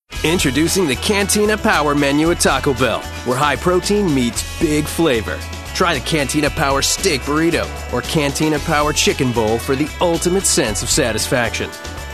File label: commercials